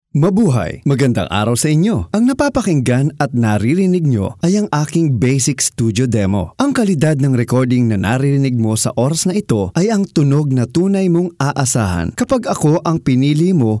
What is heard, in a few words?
Philippines Filipino and Tagalog Voice Talent; Mastered VO